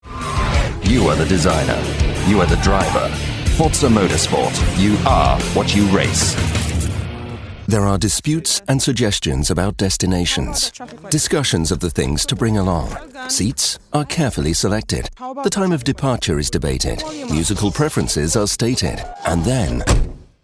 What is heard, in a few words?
Commercial VO